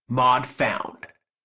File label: Operator Voice B; Warframe; Operator Mission; Mod Marked; en